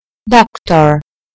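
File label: "doctor", British English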